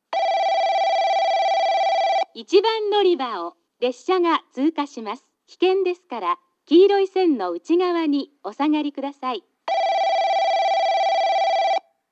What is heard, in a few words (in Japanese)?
1番のりば通過放送